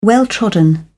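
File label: "well trodden", British English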